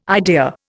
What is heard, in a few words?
"idea", Indian